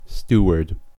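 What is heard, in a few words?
"steward", US English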